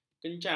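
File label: "kencang", Malay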